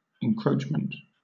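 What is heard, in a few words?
"encroachment", British English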